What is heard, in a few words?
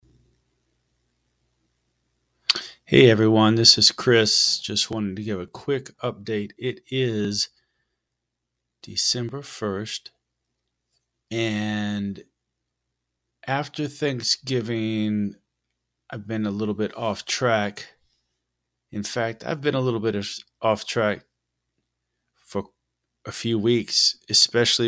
Voice Message; FlexFit Voice Message Board